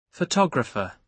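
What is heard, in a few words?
"photographer", British English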